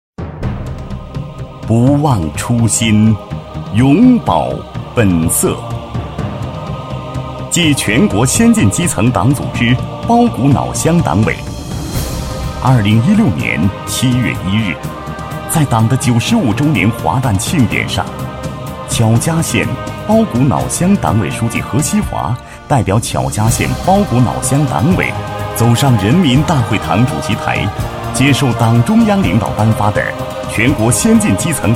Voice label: 男 党建专题 基层党组织党建宣传

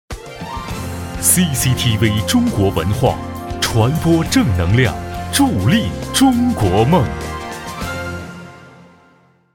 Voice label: 男 栏目片头; CCTV助力中国梦

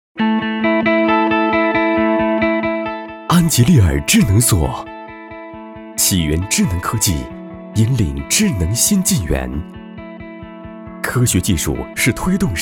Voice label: 男 广告 科技 智能锁

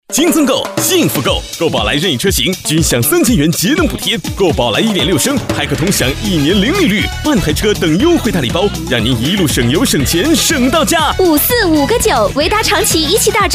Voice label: 男 广告, 浑厚大气; 国语男声配音; 唯达长齐

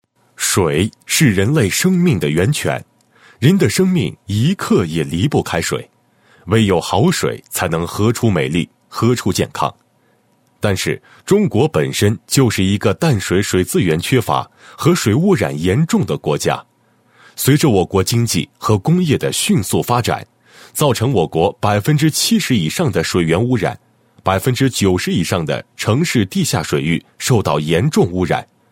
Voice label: 男 产品介绍, 智能净水器宣传介绍; 云水

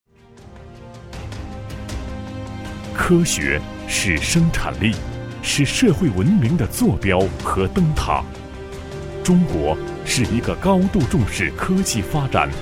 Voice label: 男 专题 市科普工作宣传, 国语男声配音

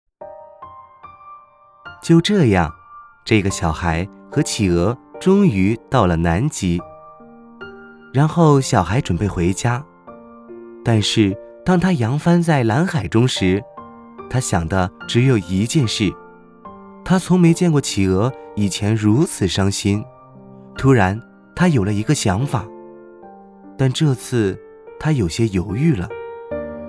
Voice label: 男, 儿童读物; 小孩与企鹅

